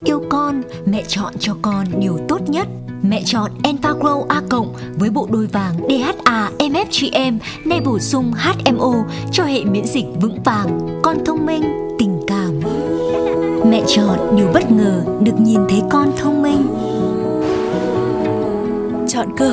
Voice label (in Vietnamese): Giọng Nữ miền Bắc; Enfagrow A